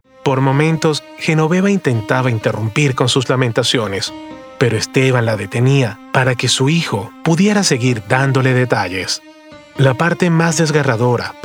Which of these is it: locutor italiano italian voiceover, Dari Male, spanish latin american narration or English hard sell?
spanish latin american narration